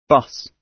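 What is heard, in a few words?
"bus", British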